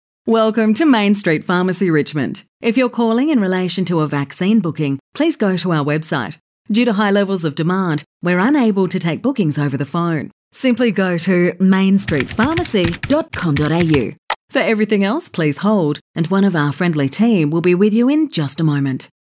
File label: IVR